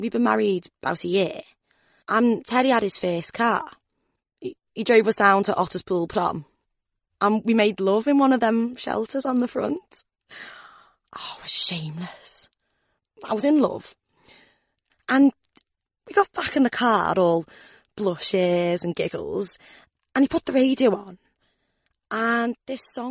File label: English Nothern F Conversational, accents of england; voice over